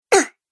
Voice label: "Ugh" Cartoon Game Voice